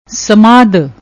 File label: "smadh", Punjabi